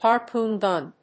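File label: "harpoon gun", English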